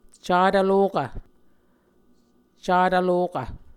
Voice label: "catv lokv", Muscogee

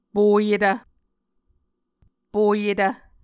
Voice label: "poyetv", Muscogee

